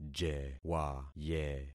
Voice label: "jewaye", concat syllables; Affixing experiment

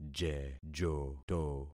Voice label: "jejoto", concat syllables; Affixing experiment

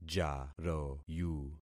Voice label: "jaroyu", concat syllables; Affixing experiment

